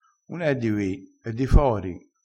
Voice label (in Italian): "di fori", crespinese